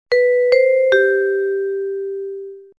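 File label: Moscow transport notification; moskovskii transport uvedomlenie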